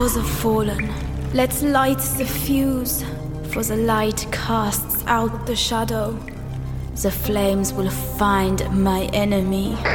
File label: Eastern European